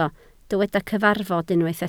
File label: "cyfarfod", Welsh